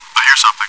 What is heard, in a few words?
chat